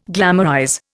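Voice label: "glamour", Indian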